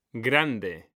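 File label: "grande", Spanish, male; mekanta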